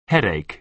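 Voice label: "headache", American English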